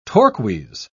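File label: "torques", English, British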